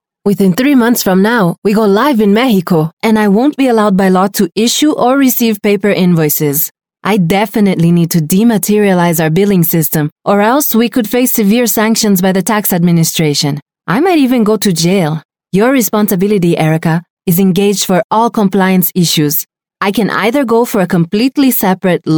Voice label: Brazilian English Latin Accent Acting, Brazilian Portuguese Female